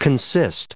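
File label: "consisting", US English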